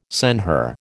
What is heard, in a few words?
"senhor", American